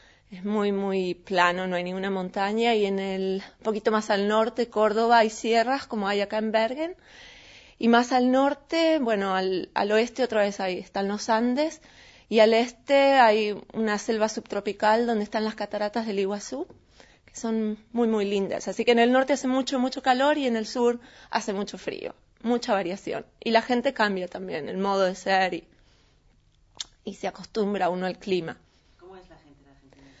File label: Argentina, dialekt